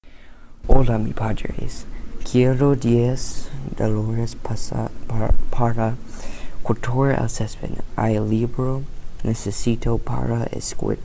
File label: Spanish Audio Sample